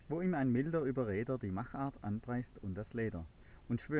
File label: room speech 8kHz